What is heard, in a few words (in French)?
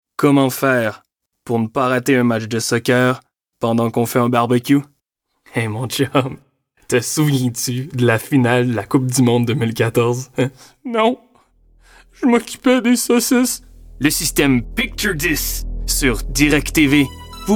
fr qc joual qc naturel conversation quotidienne grave adulte; direct tv